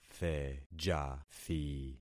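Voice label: "thejathi", concatenated syllables; Affixing experiment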